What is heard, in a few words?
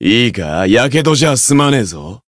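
encounter, Japanese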